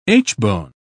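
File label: "aitchbone", British English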